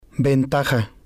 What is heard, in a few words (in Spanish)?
"ventaja", spanish